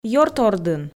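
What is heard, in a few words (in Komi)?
"ёрт ордын", Komi, female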